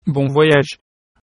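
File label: bon voyage, French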